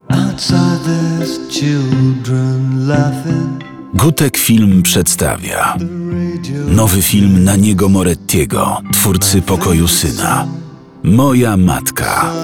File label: spot radio; Moja matka; spot radiowy